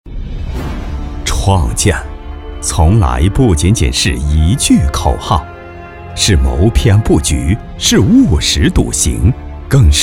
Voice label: 男 专题 激情厚重; 煤矿

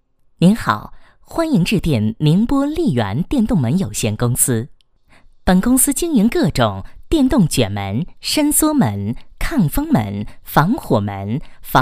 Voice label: 彩铃, 国语女声配音; 成熟稳重; 电动门公司

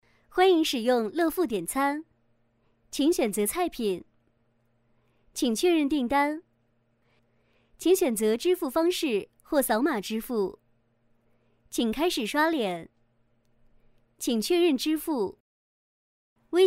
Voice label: 女 语音提示 点餐

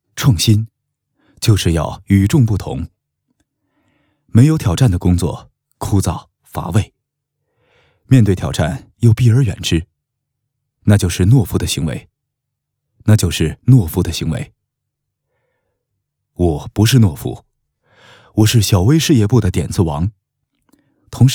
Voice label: 男 独白 暖心

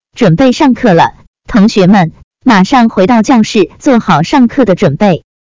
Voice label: 女 校园播报

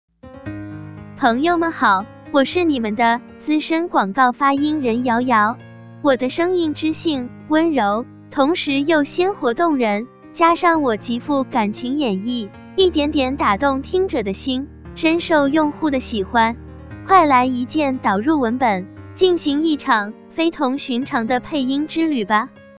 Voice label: 女 自我介绍

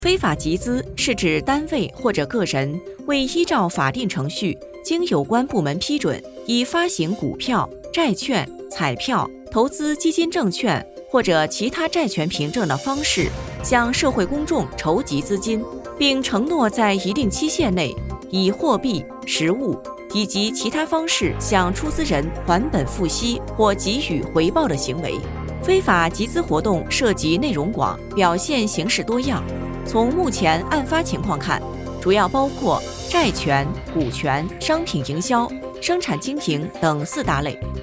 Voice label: 课件 非法集资

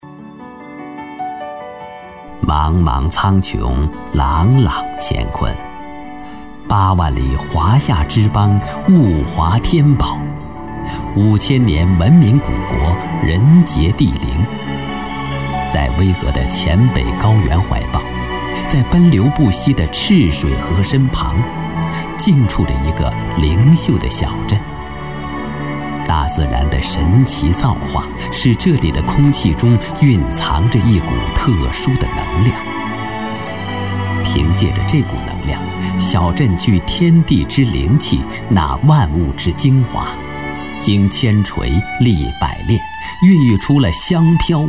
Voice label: 男 老师配音 专题, 国语男声配音; cctv国宝档案老师配音

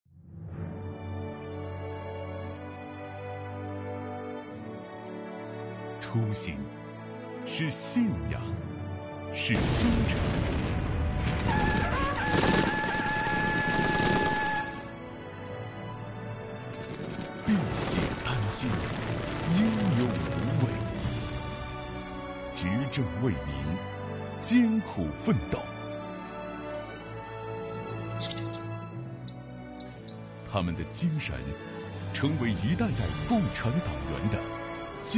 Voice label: 男; 山东卫视不忘初心牢记使命

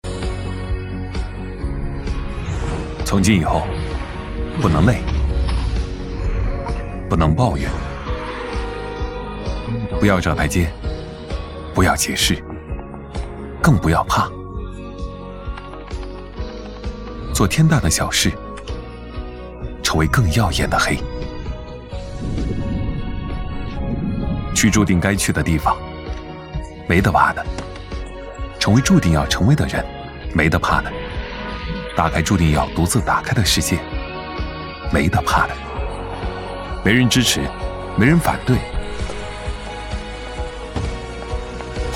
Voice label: 男, 个性自然, 广告; 华为matepad

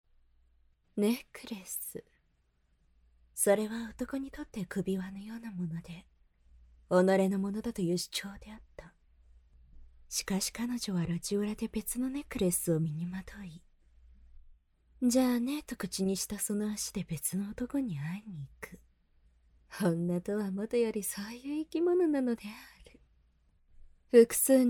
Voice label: 外籍日语女 科普类旁白

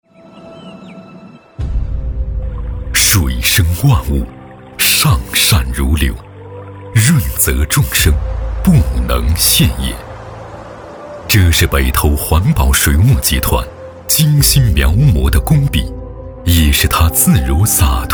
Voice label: 男 宣传 企业 片 走心大气; 北投环保水务集团

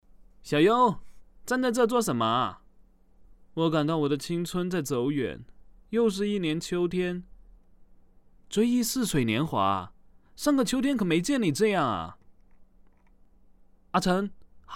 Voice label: 港台剧 短剧配音; 网申港台剧

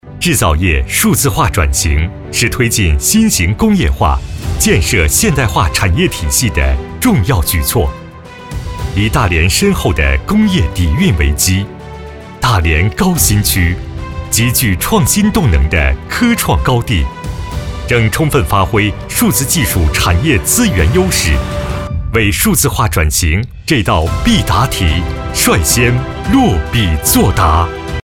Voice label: 男 宣传片积极; 大连高新区

